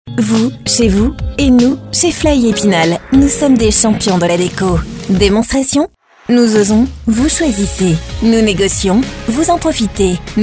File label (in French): Publicite radio